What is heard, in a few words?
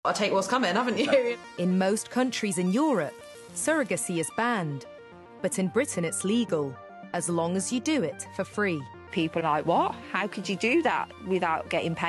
english british documentaries